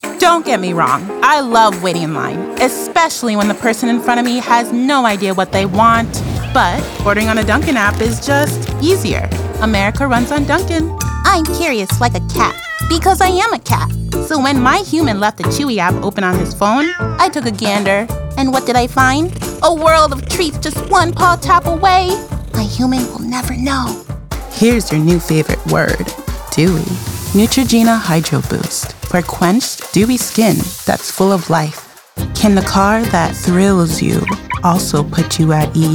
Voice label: Commercial